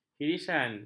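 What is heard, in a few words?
"hirisan", Malay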